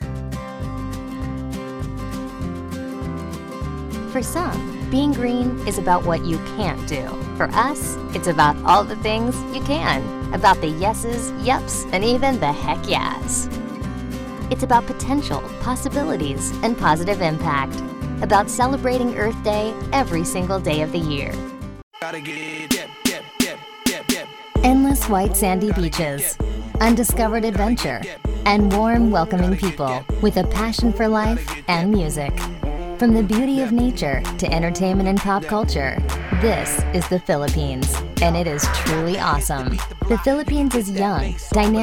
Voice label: narration female

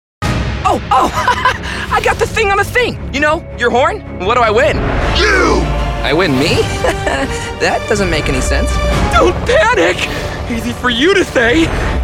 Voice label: animation men, voices men

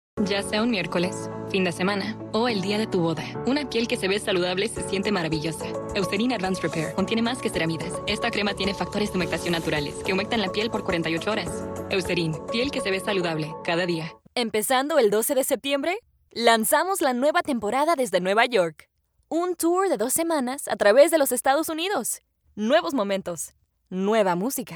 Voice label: languages women spanish commercial

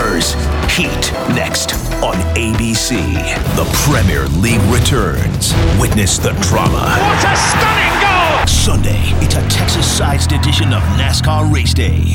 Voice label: promo men sports

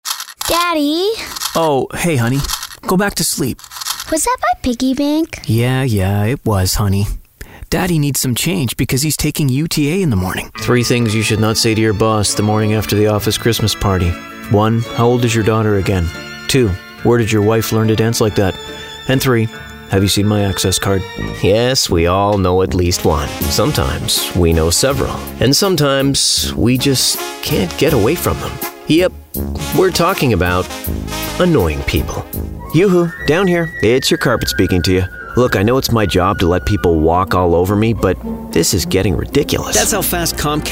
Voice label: commercial, men

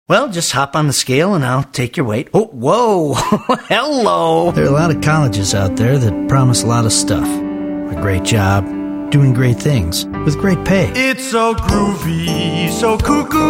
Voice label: commercial men

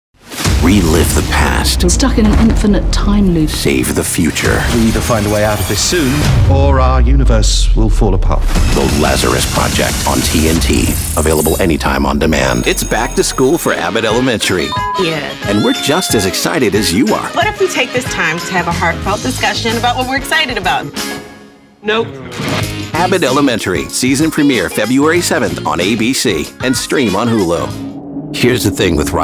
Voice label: promo men

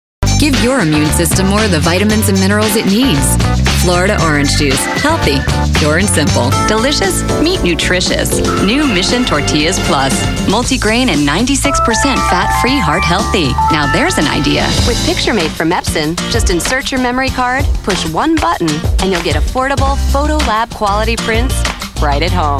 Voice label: commercial, commercial women

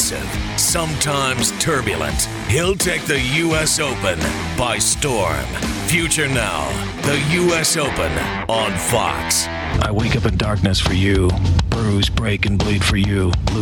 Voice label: promo men sports

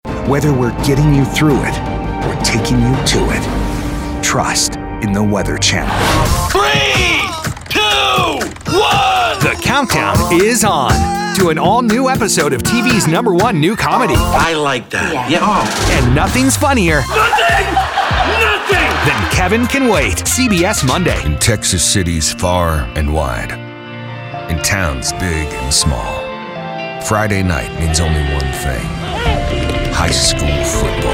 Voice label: promo male

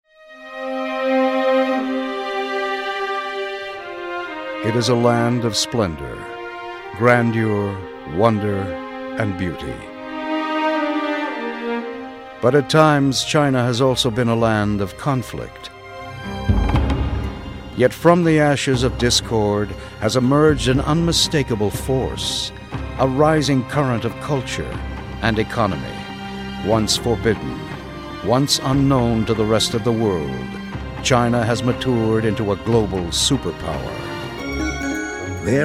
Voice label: narration

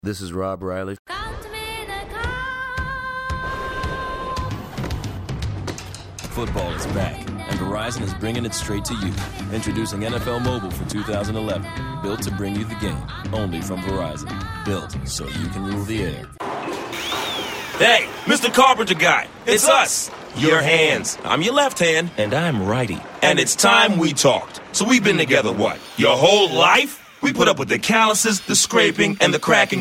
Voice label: commercial, men

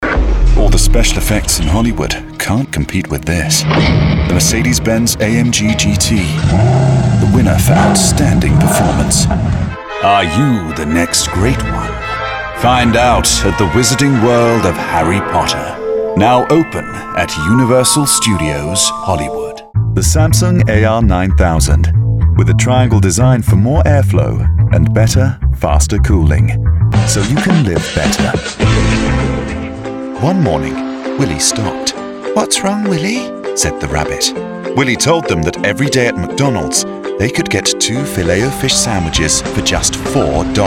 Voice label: languages male british

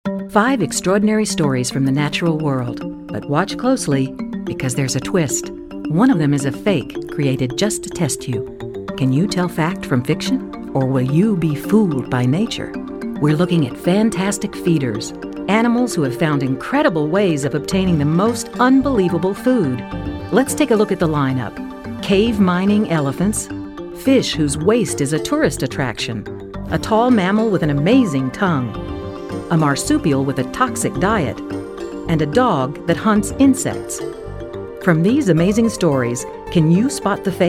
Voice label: narration

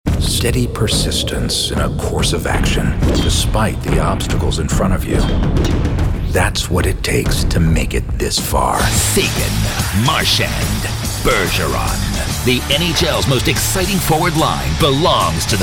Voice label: promo sports; men

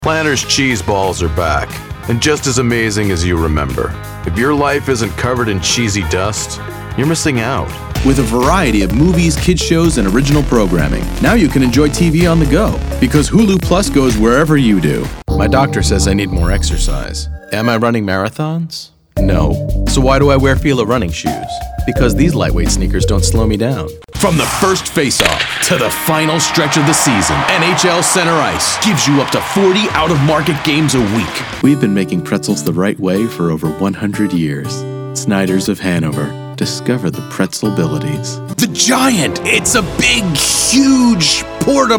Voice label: commercial men

